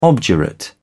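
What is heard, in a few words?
"obdurate", British English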